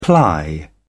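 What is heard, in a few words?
"ply", British English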